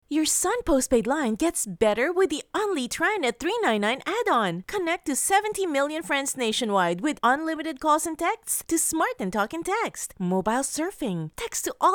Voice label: English, Selling

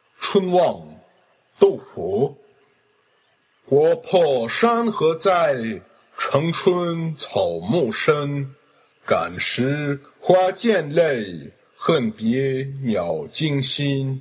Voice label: Modern Chinese

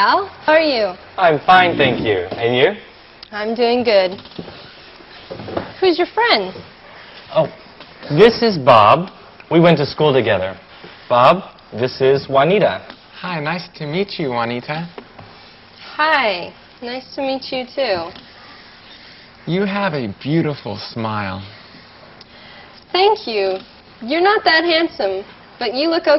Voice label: Greeting and introduction, English communication

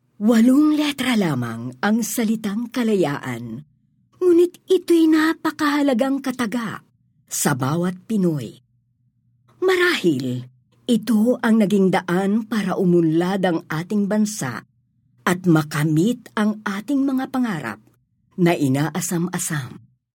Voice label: Tagalog, Drama

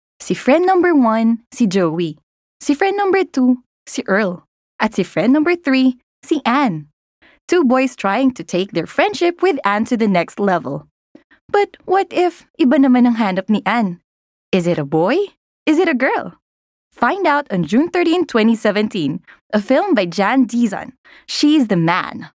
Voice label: Taglish (Engaging, Fun

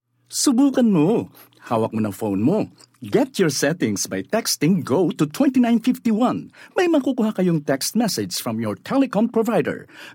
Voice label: Taglish (Selling)

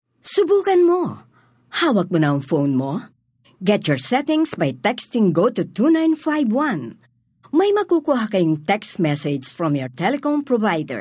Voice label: Taglish (Selling)

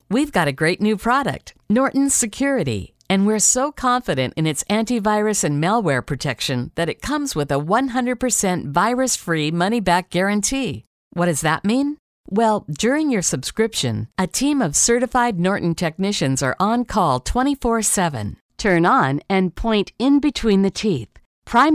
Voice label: English

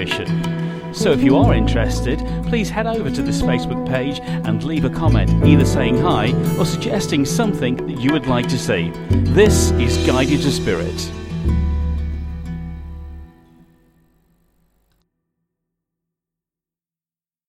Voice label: Podcast

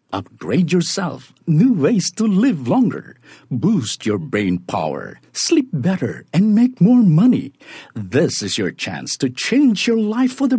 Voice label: English (Selling)

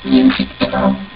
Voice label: robo talk

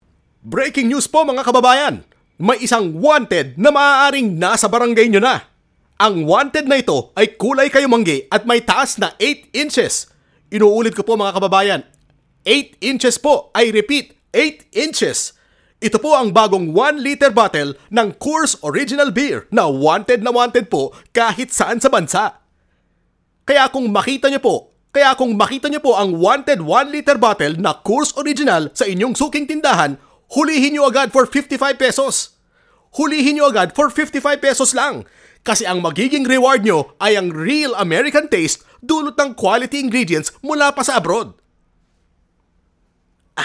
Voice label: Tagalog (fast, AM Radio)

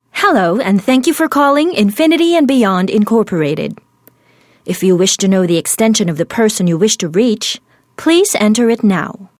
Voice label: English (Informative, Professional)

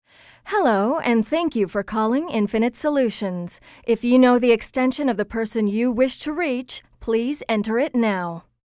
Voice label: English (Informative, Professional)

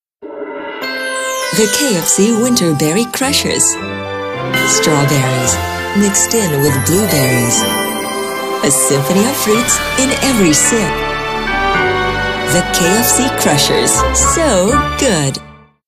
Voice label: English (Selling)

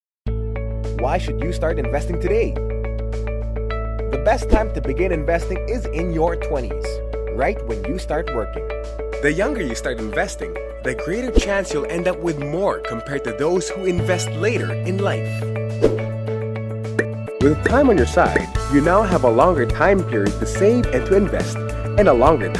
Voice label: English (E-learning)

